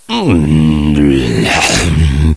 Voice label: snarl; svencoop; echoes; gman